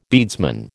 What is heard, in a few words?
"beadsman", American